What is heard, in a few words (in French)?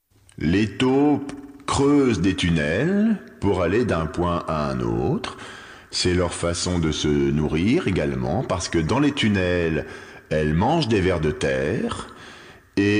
radio pub spot